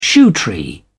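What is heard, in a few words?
"shoetree", British English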